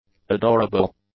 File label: "adorable", English; adjectives